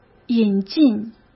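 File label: "引进" Mandarin Chinese; chinese flashcards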